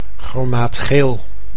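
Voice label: "chromaatgeel", Dutch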